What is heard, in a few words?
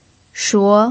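"shuo", Mandarin Chinese pinyin, second tone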